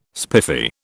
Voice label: "spiffy", British